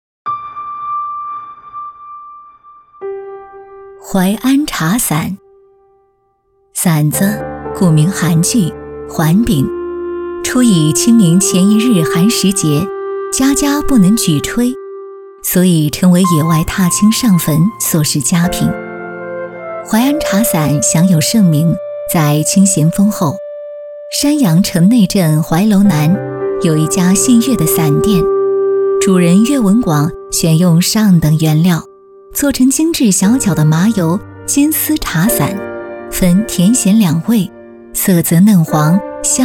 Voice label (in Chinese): 女 纪录片 系列电视纪录片; 淮安文化百科